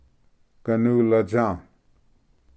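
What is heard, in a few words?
"ganulatsa", Cherokee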